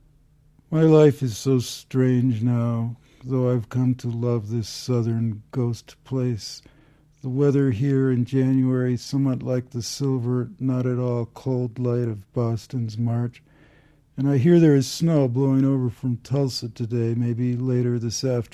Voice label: poem; weekend america